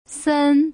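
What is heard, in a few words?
"sen", Mandarin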